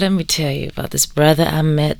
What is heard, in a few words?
Female Vocals